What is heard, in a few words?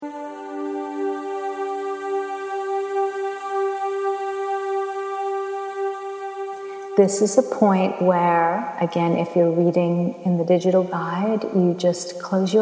Meditation; Life Guide on Aging